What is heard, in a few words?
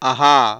"aha", vowel